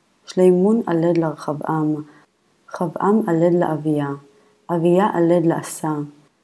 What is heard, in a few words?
Matthew Reading Practice, Aramaic